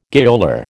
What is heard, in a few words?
"gaoler", American